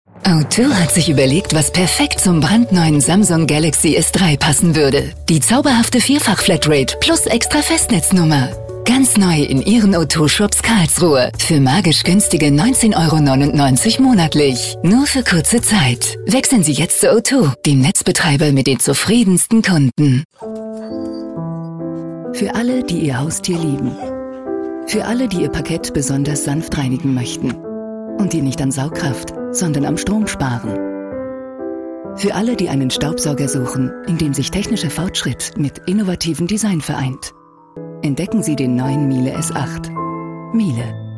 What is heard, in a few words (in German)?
de werbung, deutsch frauen; sprecher